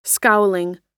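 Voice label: "scowling", American English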